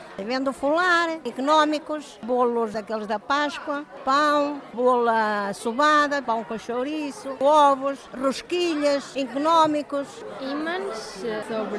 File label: vox pop; feira amêndoa; aldeia de amendoeira